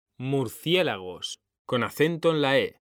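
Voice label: "murciélagos", Spanish, male; mekanta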